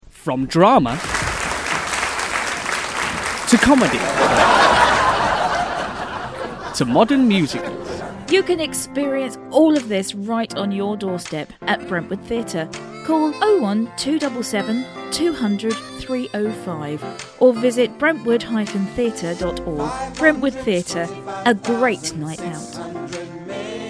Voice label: Theatre Ad